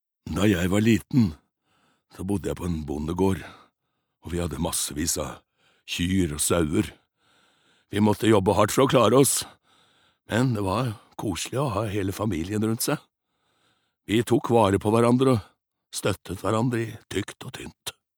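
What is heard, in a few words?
no dub old man, Norwegian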